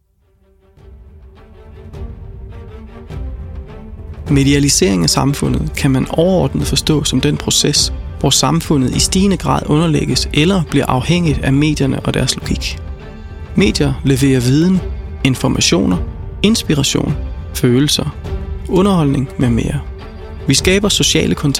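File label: Danish male Documentary; voice over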